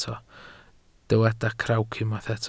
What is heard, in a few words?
"crawc", Welsh